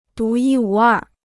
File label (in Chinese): "独一无二", Chinese